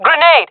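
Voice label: throwing grenade, jungle female; vo; Counter Strike Model Pack; CS:GO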